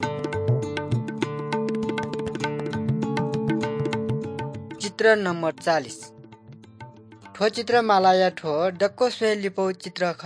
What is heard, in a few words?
good news newari balami; bible